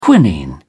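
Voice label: "quinine", English, uk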